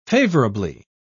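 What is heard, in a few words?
"favourably", British English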